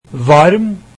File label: norwegian warm